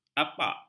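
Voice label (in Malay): "apak", Malay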